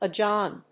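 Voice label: "ajam", English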